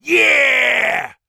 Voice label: human male shout yeah happy celebrate; zapsplat